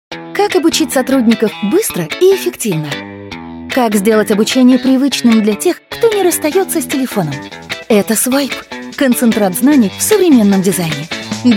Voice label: russian voice over female narrator

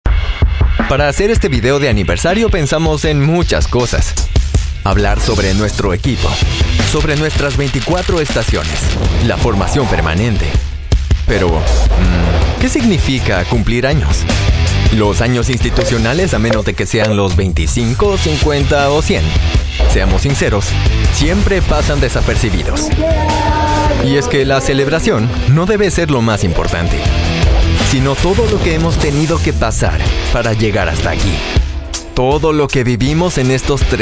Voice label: spanish voice over male narrator

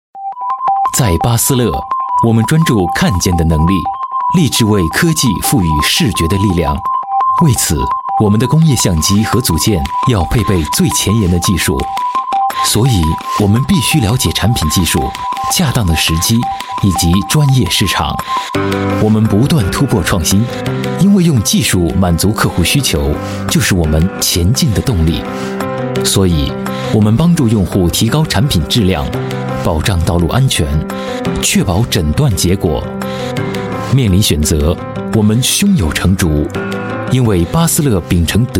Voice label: chinese voice over male narrator

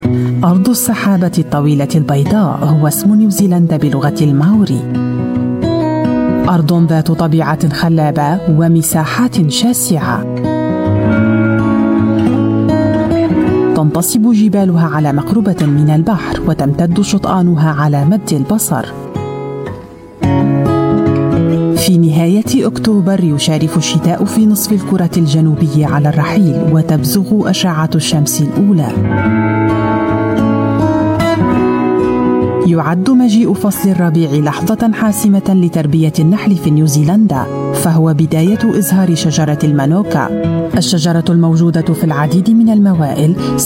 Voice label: arabic voice over female documentary

